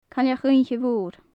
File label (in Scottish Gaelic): "cailleach oidhche mhor", gaelic; eagle owl; comhachag mhòr; comhachagan mòra, cailleachan-oidhche mhòra; Faclan Nàdair